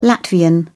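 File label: "latvian", British English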